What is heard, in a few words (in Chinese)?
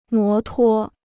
"摩托", Chinese